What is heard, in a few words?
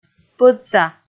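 "пӧдса", Komi, female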